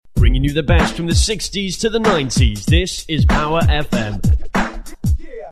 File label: male; jingles